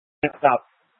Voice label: Light Rail Emergency, scanner; Channel 6; Simulcast F- Tempe